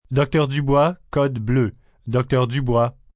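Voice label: Paging Blue French, Paging, PA, male, French, hospital; Pa Announcement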